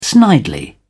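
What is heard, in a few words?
"snidely", British English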